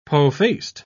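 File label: "pofaced", British English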